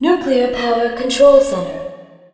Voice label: NUKE CTR, VOICES COMPUTER; Messiah